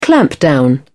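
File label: "clampdown", British English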